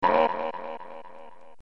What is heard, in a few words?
hurt; kaptain k. rool